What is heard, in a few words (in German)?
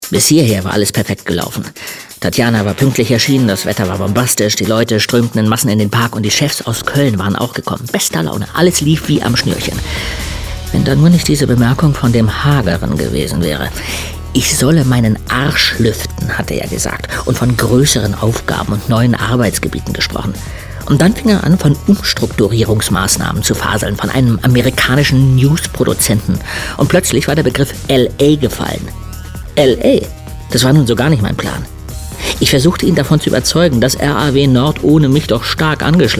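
hoerspiel, sprecher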